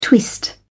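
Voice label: "twist", English, British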